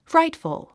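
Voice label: "frightful", 英単語 発音; frǽitfəl フライトフゥ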